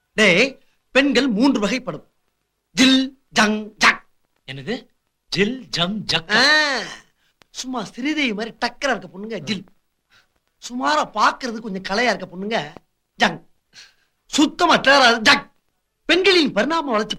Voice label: Comedy; Vadivel

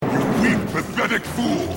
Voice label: Weak Pathetic; Mk9

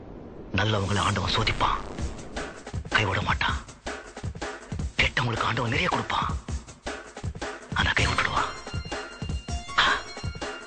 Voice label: Dialog; Punch

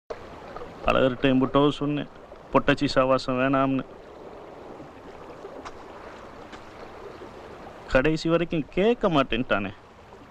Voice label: Mass Dialogue

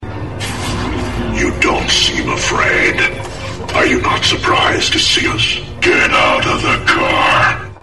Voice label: Optimus Voice

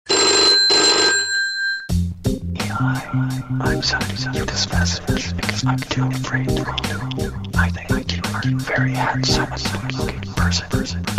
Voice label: Whispering

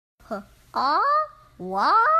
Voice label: kid saying who

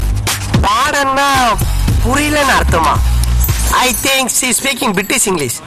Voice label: British English

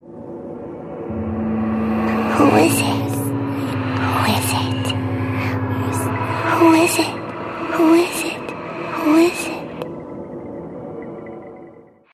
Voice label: Scary Girl Who Is It

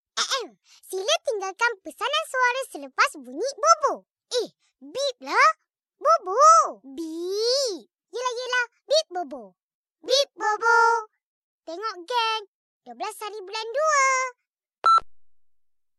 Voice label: Voice Mail; Upin Ipin

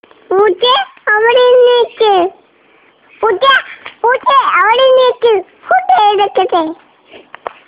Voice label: Kid Calling Kitten

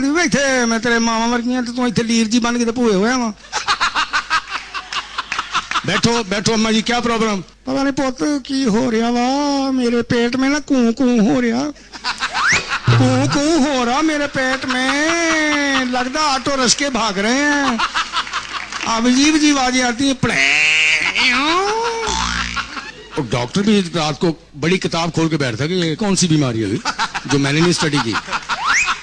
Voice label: funny punjabi

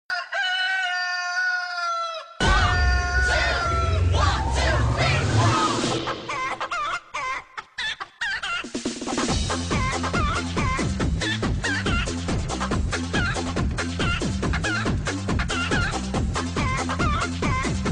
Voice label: Funny Comedy Mix

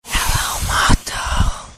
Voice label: Whisper - Hello; Moto

